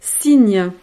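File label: "cygne", French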